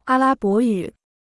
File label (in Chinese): "阿拉伯语", Chinese